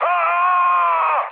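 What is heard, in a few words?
Serbian damaged pilot mood med, speech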